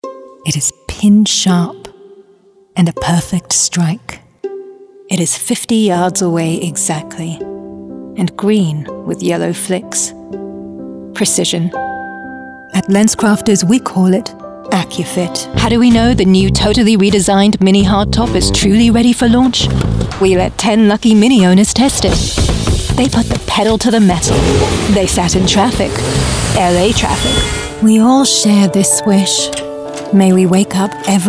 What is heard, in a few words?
british commercial; BRITISH » Light British voice. More of a hybrid/Mid-Atlantic.